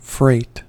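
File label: "freight", American English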